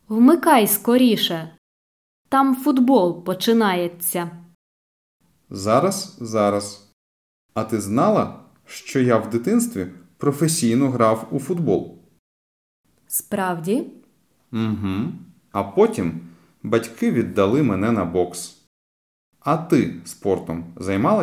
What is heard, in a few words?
Dialogue Slow